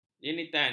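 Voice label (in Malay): "jenitan", Malay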